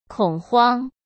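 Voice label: "恐慌", Mandarin Chinese; chinese flashcards; hsk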